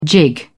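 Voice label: "jig", British English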